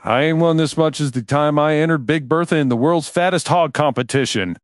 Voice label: happy, speech; fertig classic games